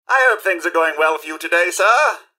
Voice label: robots dialog hello; mrhandy; npc

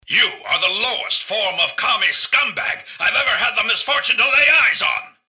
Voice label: generic robot murder; mrgutsy; npc

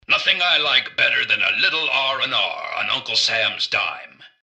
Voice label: generic robot alert to normal; mrgutsy; npc

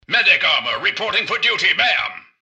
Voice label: generic robot suit start; npc; mrgutsy

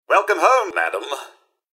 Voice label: robots dialog hello; mrhandy; npc

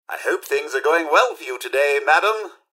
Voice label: robots dialog hello; mrhandy; npc

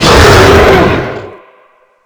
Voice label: hurt; thor

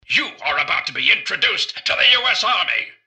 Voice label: generic robot alert to combat; mrgutsy; npc

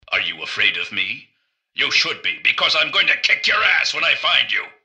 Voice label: generic robot alert idle; npc; mrgutsy

